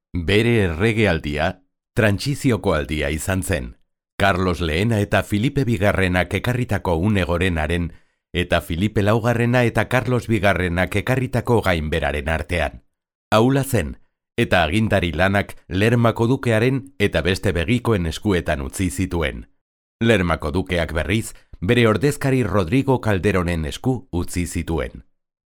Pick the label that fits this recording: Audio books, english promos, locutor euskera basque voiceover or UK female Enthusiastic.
locutor euskera basque voiceover